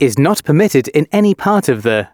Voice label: announcements, male